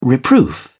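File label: "reproof", British English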